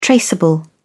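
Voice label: "traceable", British English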